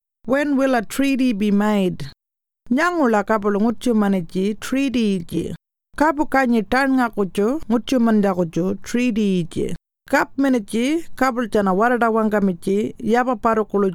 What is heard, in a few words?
Warlpiri; When will a Treaty be made